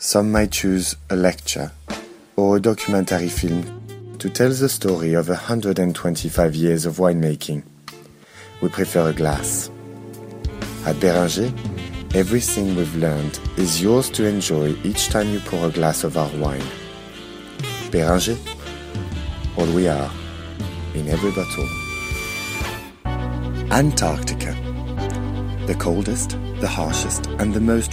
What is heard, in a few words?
English, French, Male, 20s-40s, voiceover; European